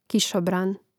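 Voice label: "kišobran", Croatian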